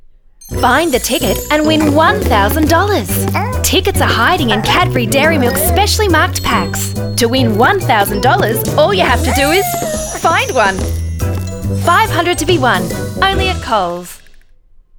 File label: Hard Sell; KAMvoices